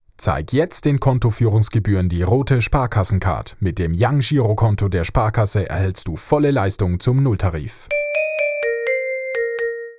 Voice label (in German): Werbung Reminder; Sparkasse